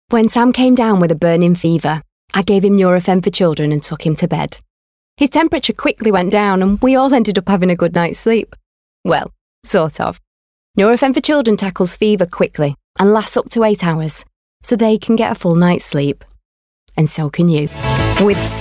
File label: Commercials